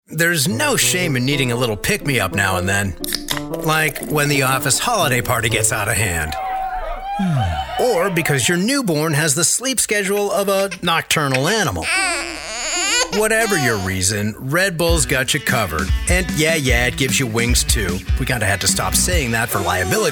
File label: Commercial